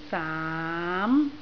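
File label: "saam2", Cantonese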